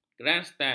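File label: "grandstan", Malay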